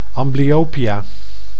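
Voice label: "amblyopia", Dutch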